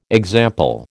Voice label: "example", American